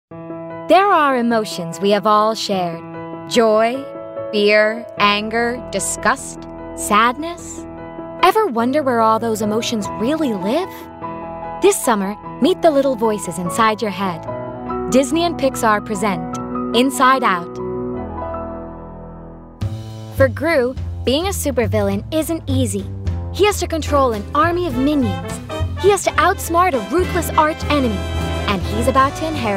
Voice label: Promo